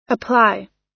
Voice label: "apply", us